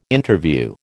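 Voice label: "Interview", American English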